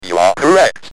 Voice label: You Are Correct, Speak & Spell UK Speech